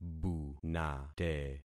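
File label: "bunate", concatenated syllables; Affixing experiment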